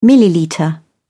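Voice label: "millilitre", British English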